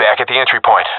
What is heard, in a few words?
at our spawn, professional epic; vo; Counter Strike Model Pack; CS:GO